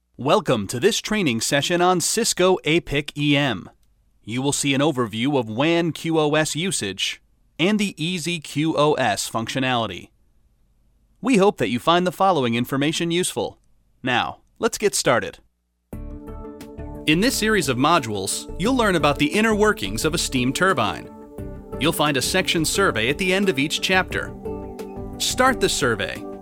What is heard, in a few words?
eLearning